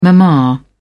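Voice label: "mama", English, uk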